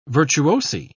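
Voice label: "virtuosi", English, British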